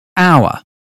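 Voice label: "hour", British phonetics; 英语音标发音（剑桥版）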